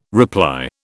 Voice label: "reply", British